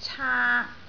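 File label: "caak3", Cantonese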